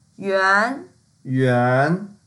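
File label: "yuan2", Mandarin Chinese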